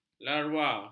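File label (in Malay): "larva", Malay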